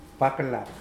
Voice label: "pwaklap", Mokilese